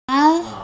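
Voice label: "ran2", Mandarin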